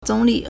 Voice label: "zong3li3", Chinese

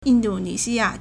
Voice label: "yin4 du4 ni2 xi1 ya4", Chinese

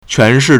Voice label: "quan2 shi4", Chinese

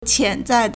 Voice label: "qian2 zai4", Chinese

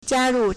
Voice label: "jia1 ru4", Chinese

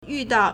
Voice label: "yu4dao4", Chinese

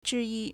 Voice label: "zhi1yi1", Chinese

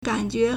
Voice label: "gan3jue2", Chinese

